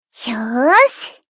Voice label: happy